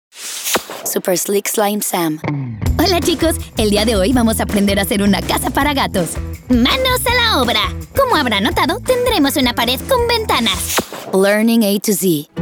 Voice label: elearning